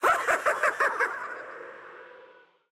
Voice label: es-es; fiddlesticks